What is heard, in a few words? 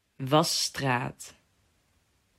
"Wasstraat", Dutch